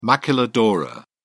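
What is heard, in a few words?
"maquiladora", English, British English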